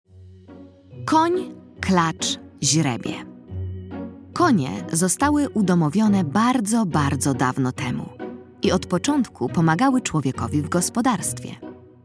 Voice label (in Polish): narracja; czasopismo mis; lato; kon klacz zrebie ciekawostka